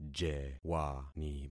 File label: "jewani", concat syllables; Affixing experiment